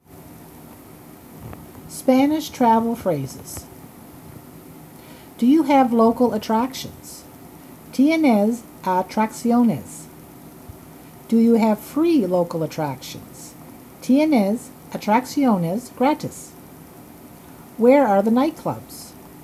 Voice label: Spanish travel phrases